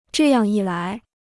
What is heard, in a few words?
"这样一来", Chinese